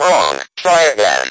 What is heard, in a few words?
speak and spell wrong try again